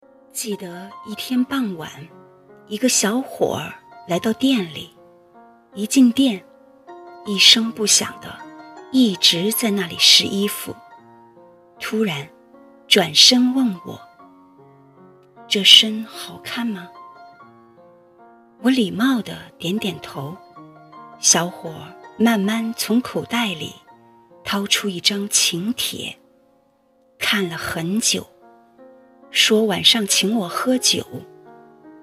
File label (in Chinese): 情感类 故事 讲述